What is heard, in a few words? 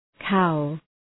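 "cow", English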